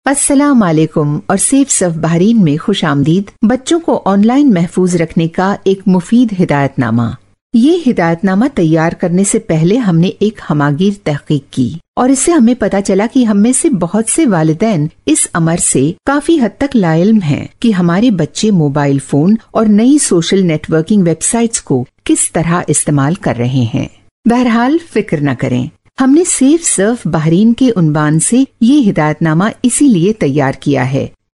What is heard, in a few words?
Urdu Female Voice Sample